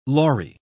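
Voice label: "lory", British English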